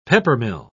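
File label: "peppermill", British English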